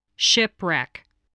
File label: "shipwreck", spelling